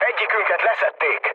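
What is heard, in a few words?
Hungarian aircraft destroyed mood low, speech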